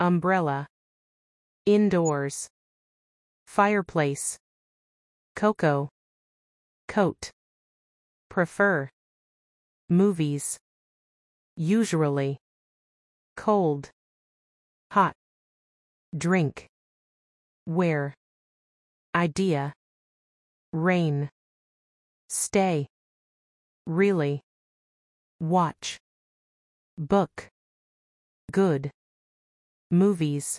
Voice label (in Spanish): notas de texto zero conditional ingles; Conversación; repaso a2 zero conditional